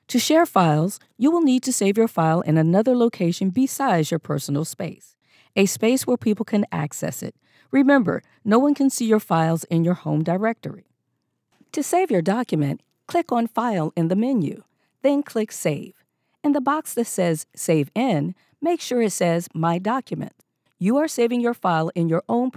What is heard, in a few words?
Instructional